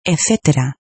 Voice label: "Etc cetera; etc", English